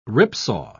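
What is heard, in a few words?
"ripsaw", English word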